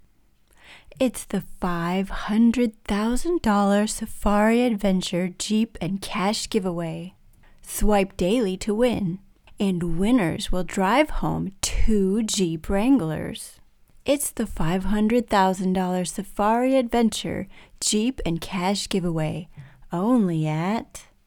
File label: Female VO